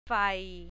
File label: "fai", Thai